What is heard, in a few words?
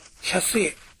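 "shasue", Minamiyamagata dialect Japanese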